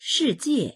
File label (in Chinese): "shi4jie4", voices; 世界